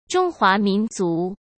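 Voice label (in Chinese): "中华民族", Mandarin Chinese; hsk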